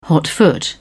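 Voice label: "hotfoot", British English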